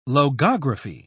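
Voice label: "logography", British English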